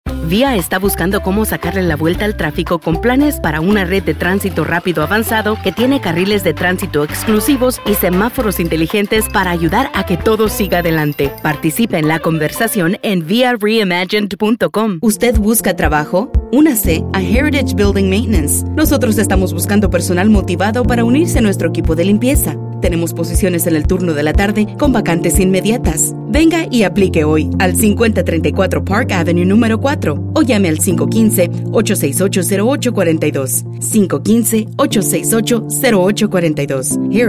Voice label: Radio comercial Spanish